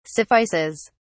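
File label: "suffices", British English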